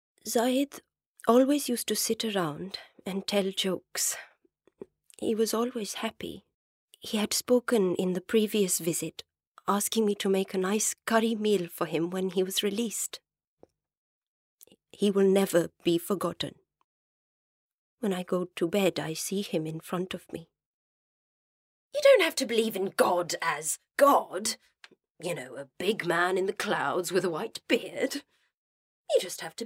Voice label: drama and narration